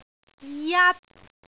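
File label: "jat1", Cantonese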